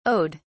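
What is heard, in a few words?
"owed", British English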